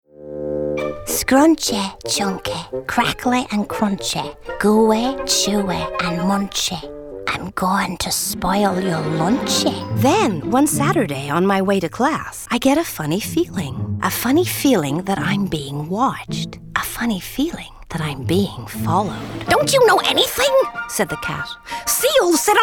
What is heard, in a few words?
animation